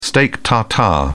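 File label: "steak tartare", British English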